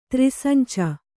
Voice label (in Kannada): "ತ್ರಿಸಂಚ", kannada